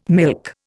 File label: "milk", Indian